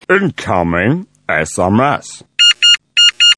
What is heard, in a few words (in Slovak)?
sms hlásky en